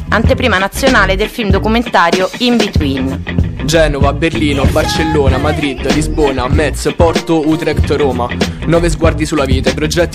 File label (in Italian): strike spot